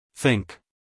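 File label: "think", American English male; everyone-can-use-english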